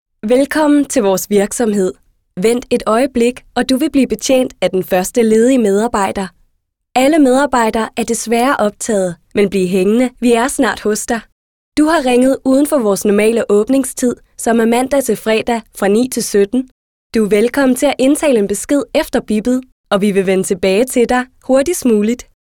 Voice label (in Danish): Uformel kvinde, Speak; indtalinger